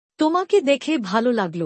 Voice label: nice to see you bangla; Basic Greetings and Courtesy